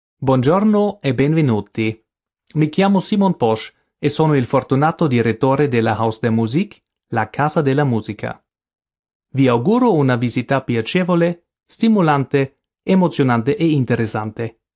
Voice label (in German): Begrüßung Ital